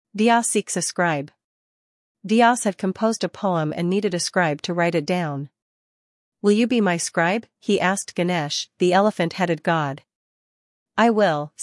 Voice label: female voice